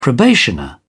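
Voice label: "probationer", British English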